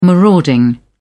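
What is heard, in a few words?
"marauding", English, British English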